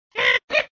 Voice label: Angry Sound Ringtone